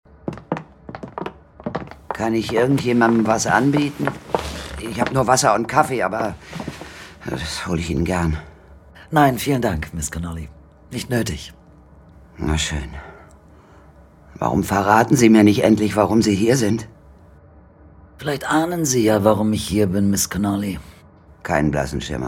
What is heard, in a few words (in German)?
Dialog, Audiobook (Hörbuch), Lip-Sync (Synchron), German; Blutlinie 01